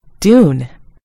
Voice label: "dune", en US; gcompris